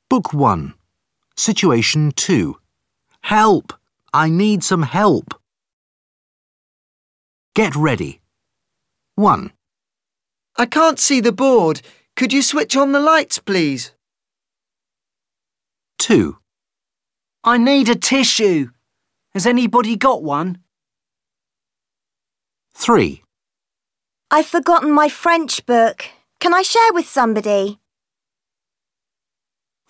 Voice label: CLASSROOM ENGLISH